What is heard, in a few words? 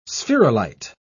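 "spherulite", British English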